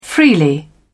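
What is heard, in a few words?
"freely", British English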